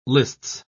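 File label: "lists", British English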